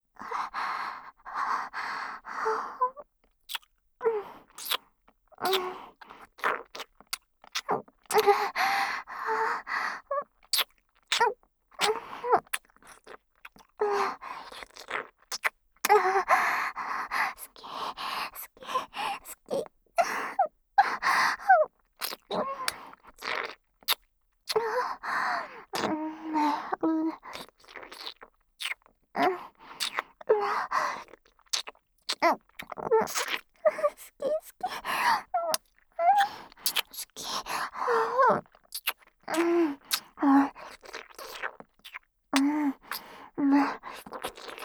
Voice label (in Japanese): 好き好き連呼処女エッチループトラック, ASMR